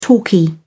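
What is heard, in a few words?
"talkie", British English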